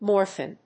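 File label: "morphon", English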